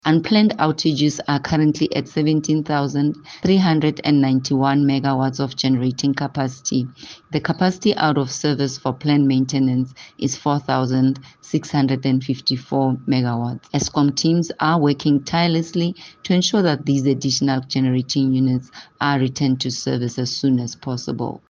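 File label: English; Load-shedding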